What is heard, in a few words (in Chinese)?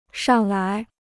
"上来", Chinese